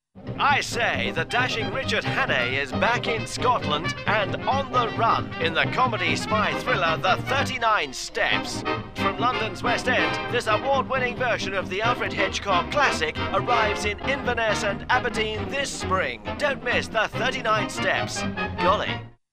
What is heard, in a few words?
Pathe News Style; 39 Steps